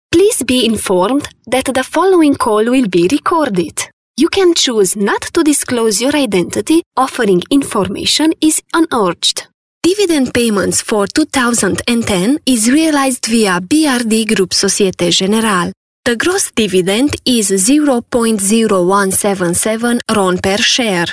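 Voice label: Romanian, female, english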